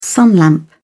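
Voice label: "sunlamp", English, uk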